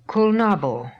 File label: "Kulnabo", pronounciations; Fourth Way; Beelzebub